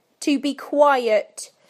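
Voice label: "to be quiet", British English; PictureDictionary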